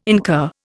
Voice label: "incur", Indian